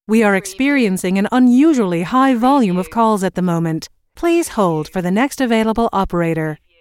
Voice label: US female phone prompt We are experiencing an unusually high volume of calls at the moment; We are experiencing an unusually high volume of calls at the moment - Please; lynne-publishing